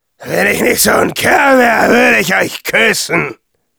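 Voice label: Demoman cheers, German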